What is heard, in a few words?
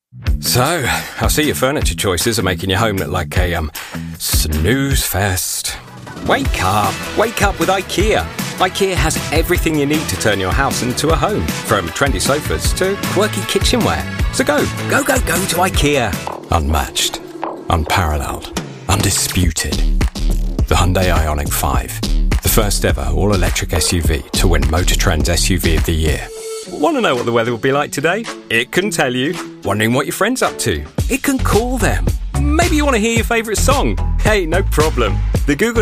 english british commercial; Inglés (Reino Unido); Soy un actor británico de formación clásica